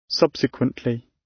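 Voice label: "subsequently", British English; Time, history; Thời gian, lịch sử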